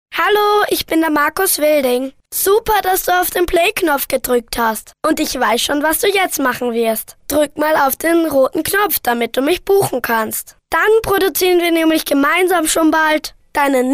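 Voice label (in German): Kinder Neutral, Kinderstimmen; kleine Profisprecher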